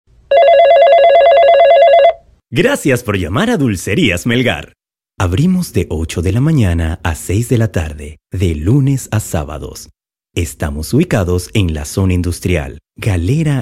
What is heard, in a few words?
ivr